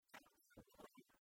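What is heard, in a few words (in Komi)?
аттьӧ бура, Komi, female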